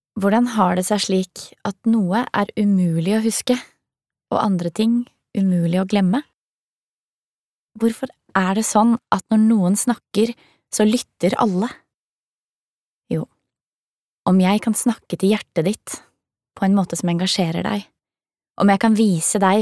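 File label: no explainer, Norwegian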